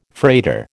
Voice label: "freighter" American